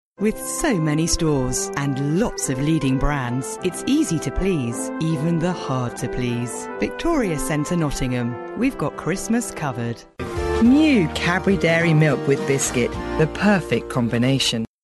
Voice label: commercial